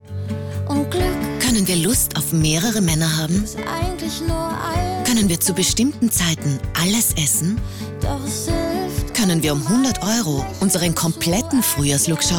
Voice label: WOMAN